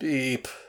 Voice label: Voices sad; The Looker

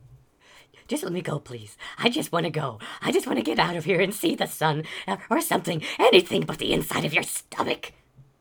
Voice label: Male Begging

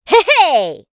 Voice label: Female Fight Appear; Mighty Party; Android

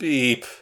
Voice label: Voices sad; The Looker

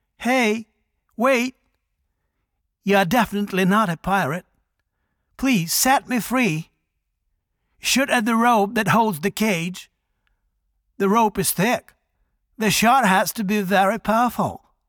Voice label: Voice Dialog; we are the dwarves gamerip 2016

